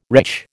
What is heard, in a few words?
"wretch", American